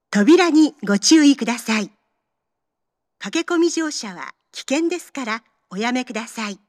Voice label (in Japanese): 発車-3番（女声放送）, 名古屋市営地下鉄 桜通線 丸の内駅